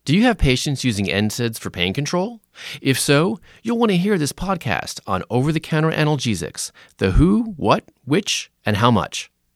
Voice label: Promo